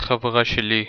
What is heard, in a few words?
female friend of mine in hebrew